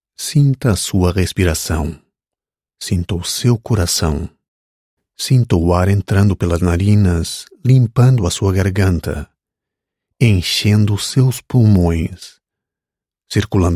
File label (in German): Neutral; PT; Fremdtake